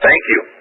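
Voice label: "thank you", male voice; expletives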